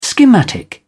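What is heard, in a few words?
"schematic", British English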